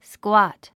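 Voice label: "squat", English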